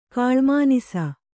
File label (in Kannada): "ಕಾಳ್ಮಾನಿಸ", kannada